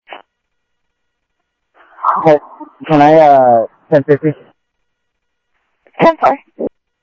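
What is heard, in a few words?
Sheriff East County, scanner audio; MCSO - EAST; South Scottsdale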